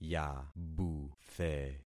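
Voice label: "yabuthe", concatenated syllables; Affixing experiment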